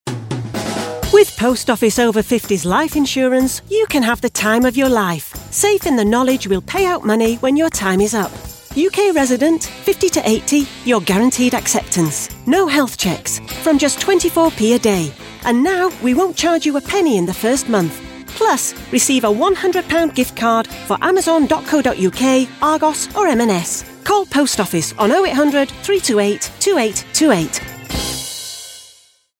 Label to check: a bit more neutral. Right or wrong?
Right